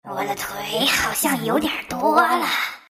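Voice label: level, chinese; dota2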